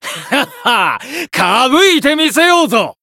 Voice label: encount, jp